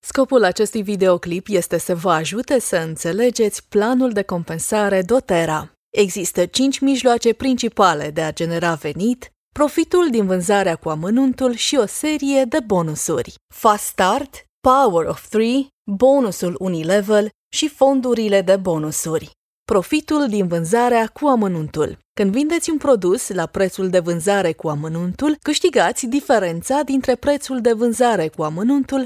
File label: romanian others